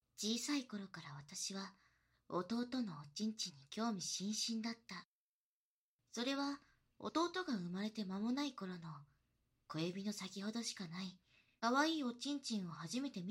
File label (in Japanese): おまけ 姉の独白